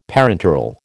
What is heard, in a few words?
"parenteral", American